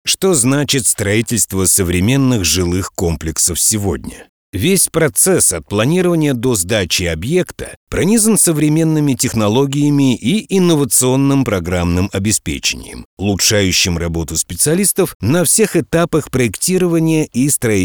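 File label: informational, voiceover video; профессиональных дикторов для озвучивания видео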